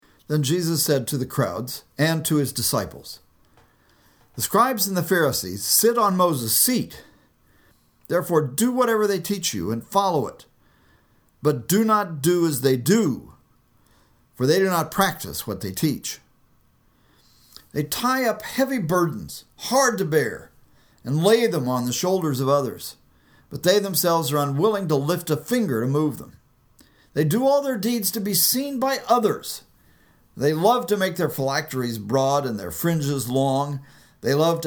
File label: storytelling